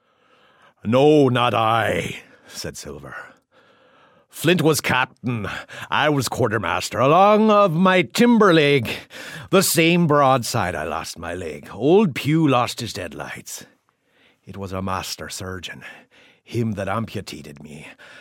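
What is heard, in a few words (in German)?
American English Charge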